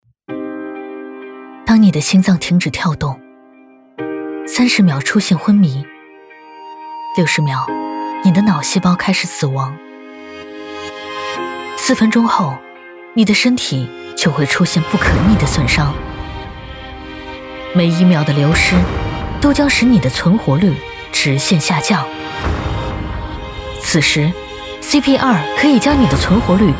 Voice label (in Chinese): 广告走心; 贝登医疗; 促销